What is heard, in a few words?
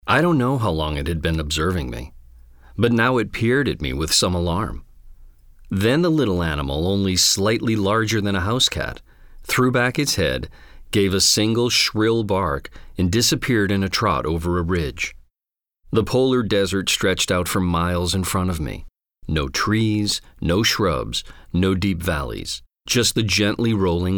Audiobook